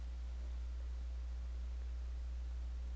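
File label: "beam", spoken word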